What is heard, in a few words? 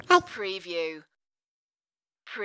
Toddler Says Hi